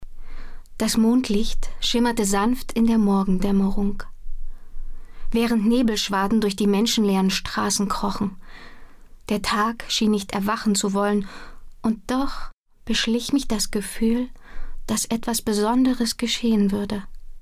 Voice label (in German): erzaehlung veraenderung; LOFT VOICES